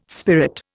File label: "spirit", Indian